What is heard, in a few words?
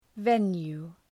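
"venue", English word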